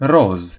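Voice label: pink, Serbian; gcompris-data; colors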